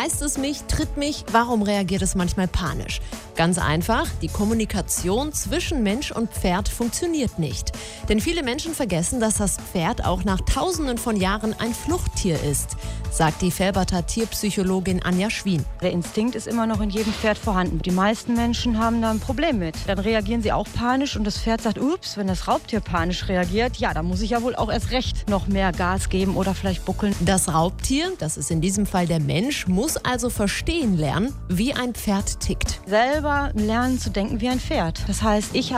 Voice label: Radio; Neandertal